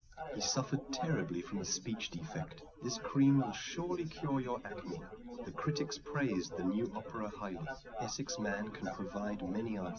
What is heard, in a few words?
test male liverec 250ms 1m src; SiSEC08; SiSEC underdetermined